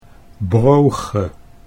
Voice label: "brooche", Dutch

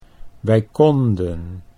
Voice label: wij konden, Dutch

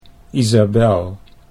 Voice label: "Isabelle", Dutch

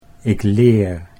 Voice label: "ik leer", Dutch

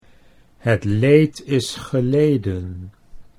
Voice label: het leed is geleden, Dutch; 'The suffering is over'

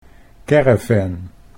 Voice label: "caravan", Dutch

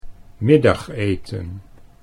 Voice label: "middageten", Dutch

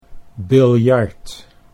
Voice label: "biljart", Dutch

